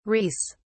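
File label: "reis", British English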